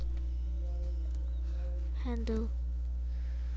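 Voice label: "handle", spoken word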